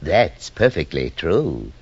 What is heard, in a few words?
that's perfectly true, british bloke; Voice